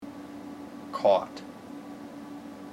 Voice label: vowel open o caught; IPA